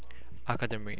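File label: "academy", Japanese, male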